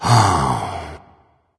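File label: sigh, dragon; fafnir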